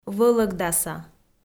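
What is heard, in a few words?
"вӧлӧгдаса", Komi, female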